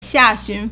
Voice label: "xia4xun2", Chinese